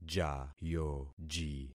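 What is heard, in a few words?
"jayoji", concatenated syllables; Affixing experiment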